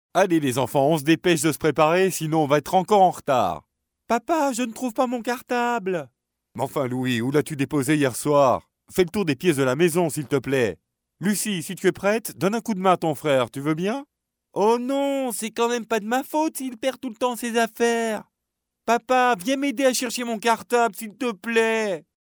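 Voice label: French, France, male, voice over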